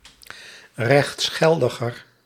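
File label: "rechtsgeldiger", Dutch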